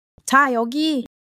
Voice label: joy; monologue

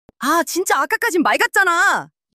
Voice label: anger; monologue

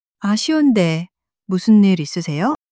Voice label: sadness, monologue

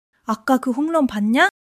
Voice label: excitement; monologue

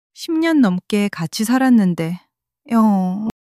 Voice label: crying, monologue

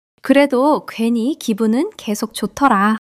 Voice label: excitement

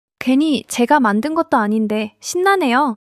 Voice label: excitement; monologue

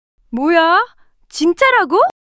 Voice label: surprise, monologue

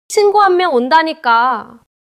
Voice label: neutral, monologue

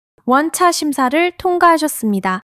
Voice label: joy

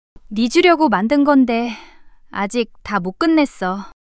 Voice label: shy; monologue

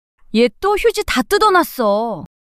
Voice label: surprise, monologue

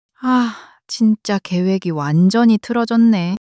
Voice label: sadness; monologue

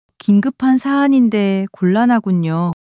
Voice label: sadness, monologue

